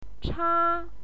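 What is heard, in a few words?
"cha", Mandarin Chinese, first tone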